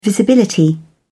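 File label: "visibility", English, British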